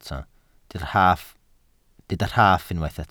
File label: "rhaff", Welsh